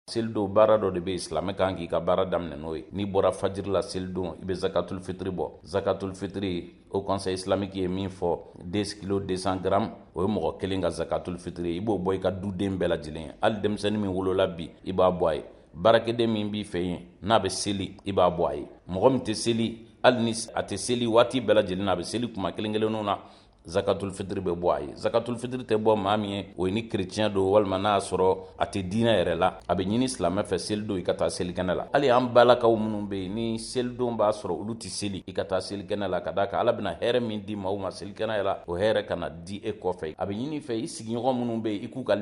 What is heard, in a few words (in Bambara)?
magazine bamanankan